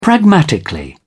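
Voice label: "pragmatically", English, British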